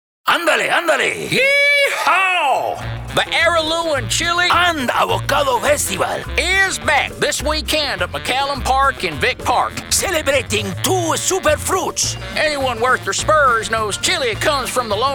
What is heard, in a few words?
Mexican Accent Southern Accent; Voice Overs Commercials; Chilli and Avocado Festival; Donald Trump